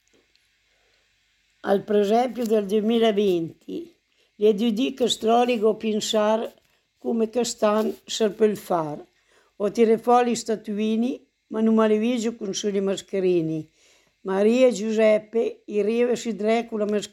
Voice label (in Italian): Poesia presepe